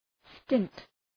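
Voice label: "stint", English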